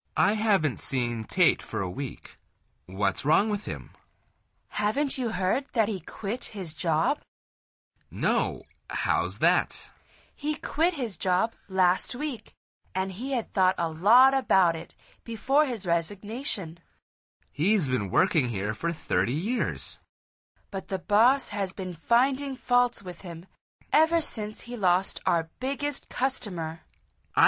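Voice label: dialog, Conversación en Ingles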